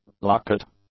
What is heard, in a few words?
"locket", English; nouns